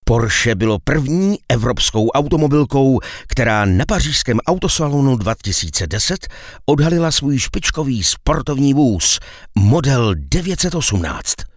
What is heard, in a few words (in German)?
Tschechien M